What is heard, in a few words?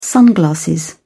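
"sunglasses", English, uk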